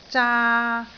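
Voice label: "zaa3", Cantonese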